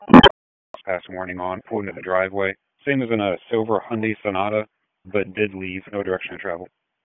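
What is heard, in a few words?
Dispatch 1, P25; WSO DISP 1; wake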